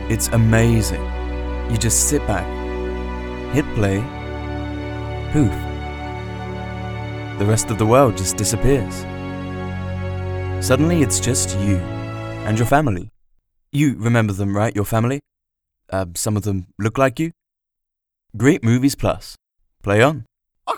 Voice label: commercial reel